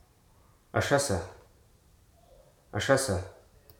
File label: "kitten", Hruso